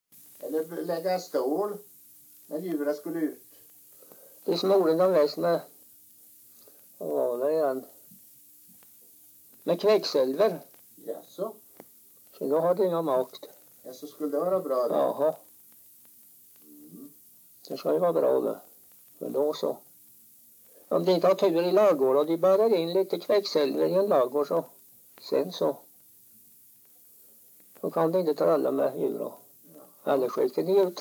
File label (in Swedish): dialekter; Folkminnen; Svenska sagor